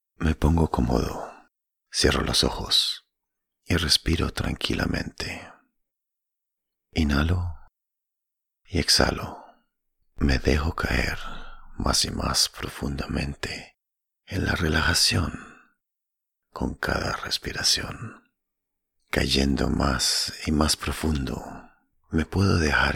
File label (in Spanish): SP Meditacion